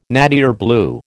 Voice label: "nattier blue", American

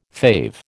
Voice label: "fave", American

